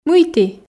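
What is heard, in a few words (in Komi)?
"мыйті", Komi, female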